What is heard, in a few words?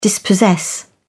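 "dispossess", British English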